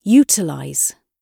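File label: "utilize", British English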